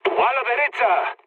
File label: right wing, mood low, speech; War Thunder